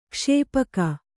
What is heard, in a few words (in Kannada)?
"ಕ್ಷೇಪಕ", kannada